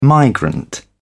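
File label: "migrant", British English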